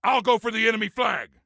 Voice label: Self Attack Flag, Soldier Male; Soldier Male 03